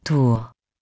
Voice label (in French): "Rook", French; tour